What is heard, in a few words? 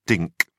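"dink", British English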